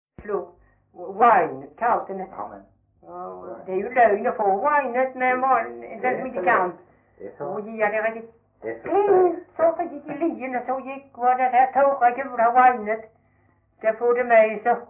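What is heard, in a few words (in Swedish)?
Veddige Halland, Svenska sagor dialekter; Folkminnen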